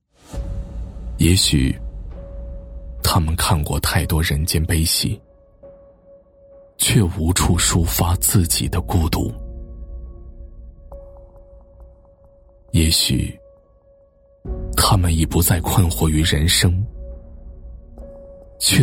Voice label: 男 人物旁白 老人 温情讲述; 磁性高端 大气浑厚

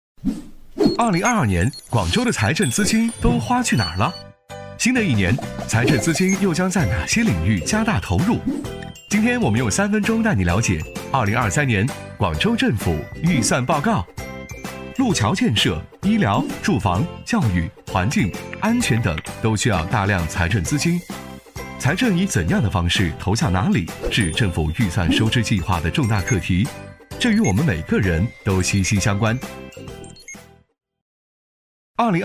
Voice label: 男 年轻活泼, 时尚科技 轻松活泼; 广州政府2023年预算报告

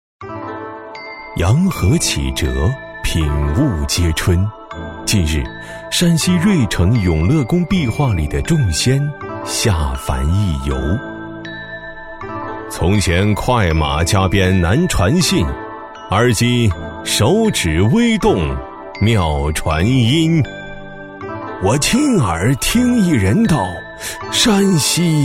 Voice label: 男 角色 旁白, 年轻浑厚 舒缓抒情; 众仙聊天

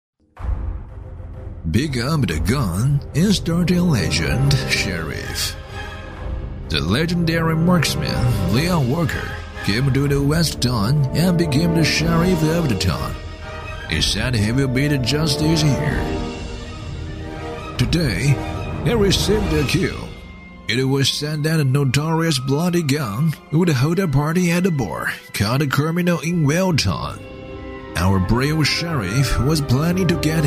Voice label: 男 英文配音 游戏英文旁白 成熟; 男B18-语言全能 质感磁性

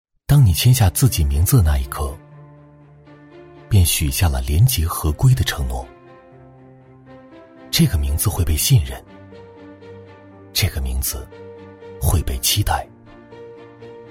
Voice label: 男 走心 温暖走心; 男B23-全能型 低沉走心

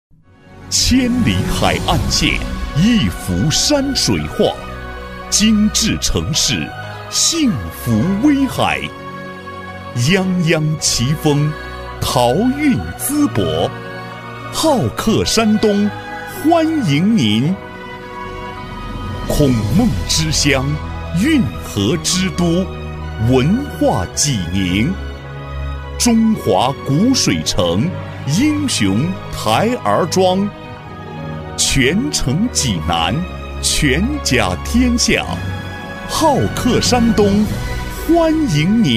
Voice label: 男 广告片; 好客山东欢迎您; 大气激情 大气浑厚; 央视广告片

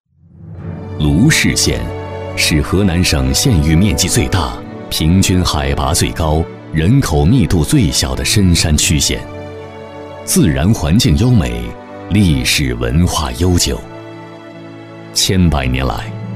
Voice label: 男 专题片 讲述 大气; 脱贫攻坚工作纪实; 高端品质 沉稳大气; 专题片【脱贫攻坚工作纪实】-讲述 大气

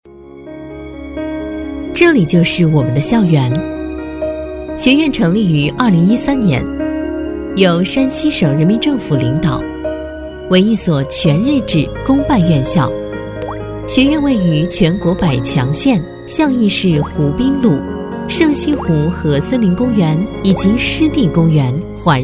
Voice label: 女-学校介绍【大气清新】; 沉稳大气

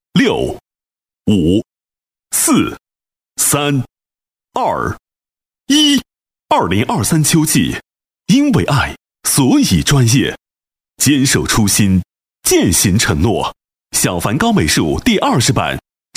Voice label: 男 启动仪式 大气有力; 磁性质感; 大气浑厚; 小梵高艺术启动倒计时

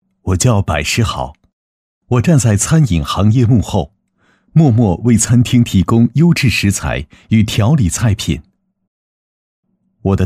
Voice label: 男-纪录片-自然讲述, 年轻厚重 自然诉说

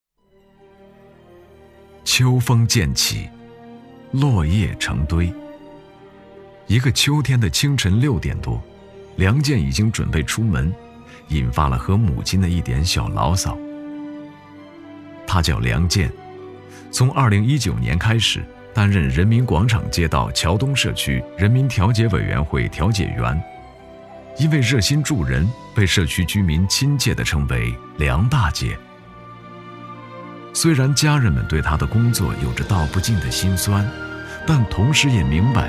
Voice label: 男 人物专题 纪实讲解感; 纪实记录

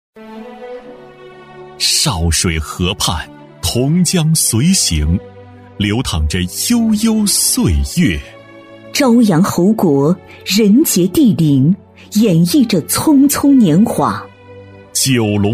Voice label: 男, 宣传片, 男女对录, 磁性抒情; 男113-磁性大气; 成熟厚重

